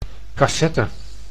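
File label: "cassette", Dutch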